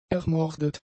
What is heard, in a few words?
"ermordet", German; adjectives